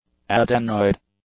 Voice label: "adenoid", English; adjectives

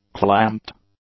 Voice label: "clamped", English; adjectives

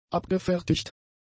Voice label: "abgefertigt", German; adjectives

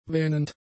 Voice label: "wähnend", German; adjectives

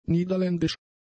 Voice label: "niederländisch", German; adjectives

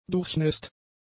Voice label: "durchnässt", german; adjectives

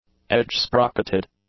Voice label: "edge-sprocketed", English; adjectives

